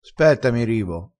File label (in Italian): "spetta", crespinese